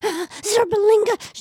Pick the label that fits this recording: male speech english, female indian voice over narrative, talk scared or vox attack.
talk scared